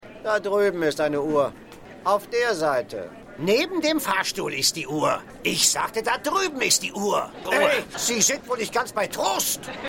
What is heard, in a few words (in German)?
Mann Flughafen, Stimmproben; Seinfeld